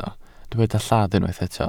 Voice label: "lladd", welsh